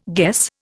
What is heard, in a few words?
"guess", Indian